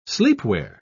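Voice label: "sleepwear", British English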